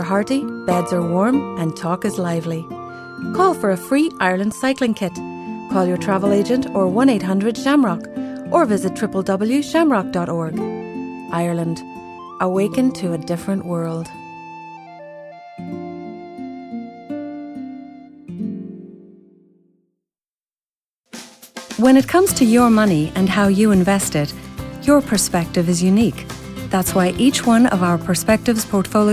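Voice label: Northern Irish, female, commercials; accent of ireland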